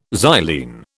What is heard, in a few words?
"xylene", British